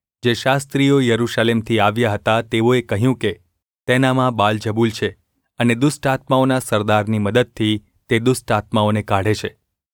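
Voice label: gu ulb mrk, Gujarati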